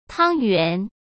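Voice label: "汤圆", Mandarin Chinese; hsk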